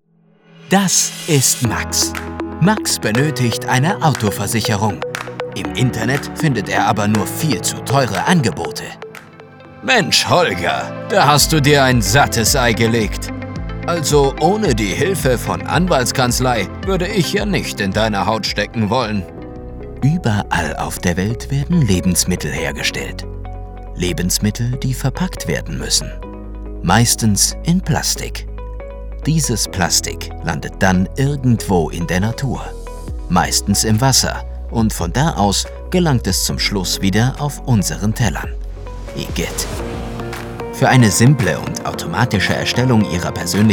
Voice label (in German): Erklärfilm Homestudio